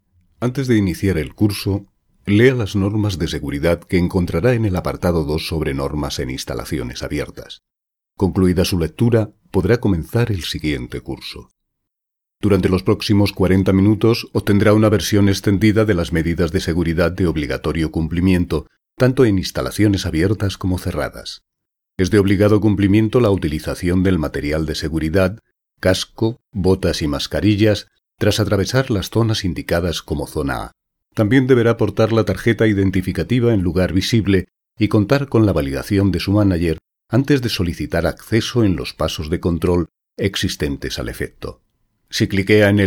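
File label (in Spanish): e-learning, Spain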